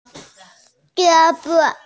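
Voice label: "gē bo", Mandarin Chinese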